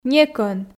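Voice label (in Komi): "некӧн", Komi, female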